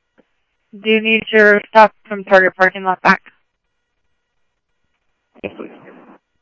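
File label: Mesa Police Department, scanner audio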